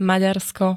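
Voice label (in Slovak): "Maďarsko", Slovak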